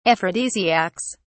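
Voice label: "aphrodisiacs", British English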